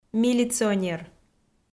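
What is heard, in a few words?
"милиционер" Komi, female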